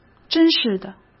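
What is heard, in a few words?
"真是的", Mandarin Chinese; chinese flashcards; hsk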